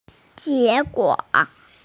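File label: "result", female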